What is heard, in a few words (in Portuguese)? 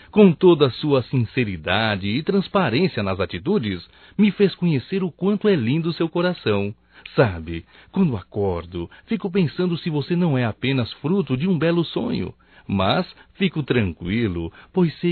ANIV Romântico (M); Telemensagens 2017; Aniversarios